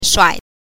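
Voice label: "shuai", Mandarin Chinese syllable, neutral tone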